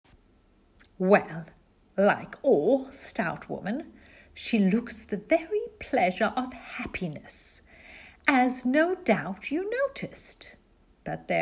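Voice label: British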